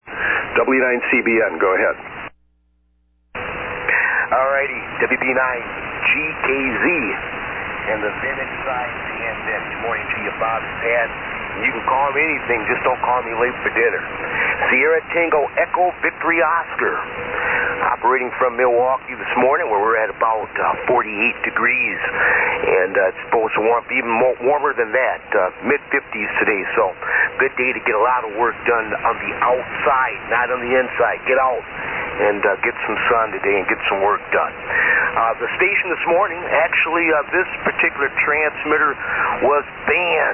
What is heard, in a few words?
Kenwood TS-940SAT MC-60 Mic & TL-922 Amp; Milwaukee, Wi.